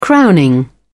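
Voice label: "crowning", British English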